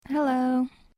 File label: Hello girl voice